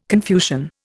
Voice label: "Confucian", Indian